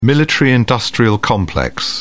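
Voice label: "military-industrial complex", British English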